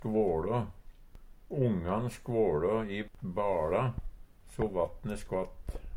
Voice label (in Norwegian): "skvåLå", Numedalsmål; Verb